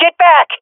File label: bomb pre explosion, swat fem; vo; agents; Source Model Packs; Counter Strike Model Pack; CS2